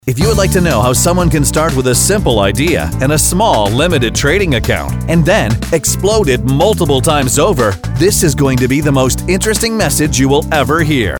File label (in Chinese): 外籍男, 零售促销广播广告